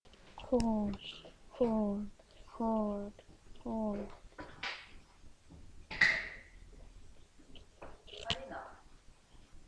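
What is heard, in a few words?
"hold", spoken word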